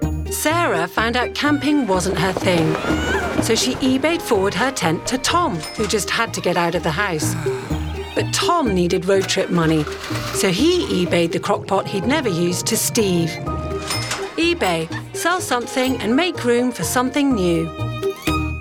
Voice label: Voice Reel MIX; EBAY